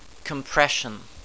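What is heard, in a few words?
"compression", English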